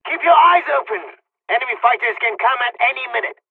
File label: dialogs polish; War Thunder